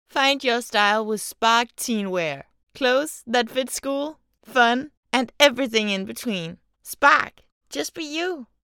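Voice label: Danish female English commercial happy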